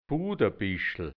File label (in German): "Budabischl", hianzisch Dialekt Burgenland; Sumpfdotterblume